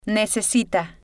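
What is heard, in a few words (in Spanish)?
"necesita", spanish, female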